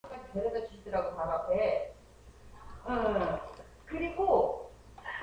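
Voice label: "neck", spoken word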